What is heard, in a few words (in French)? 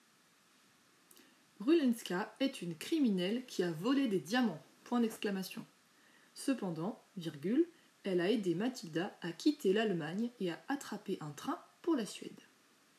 Dictée rapide